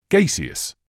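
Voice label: "gaseous", British English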